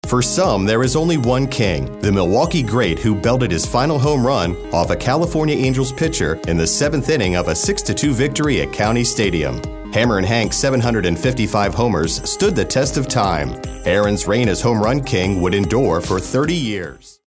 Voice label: sportscaster